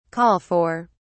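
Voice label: "call for", British English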